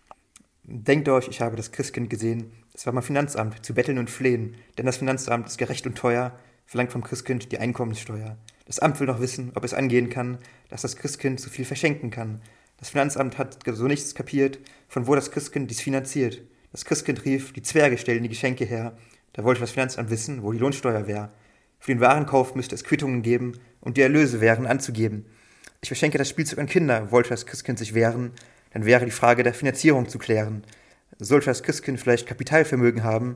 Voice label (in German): Weihnachtsgedichte im Dialekt; tuerchen 14